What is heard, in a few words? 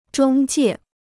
"中介", Chinese